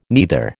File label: "neither", American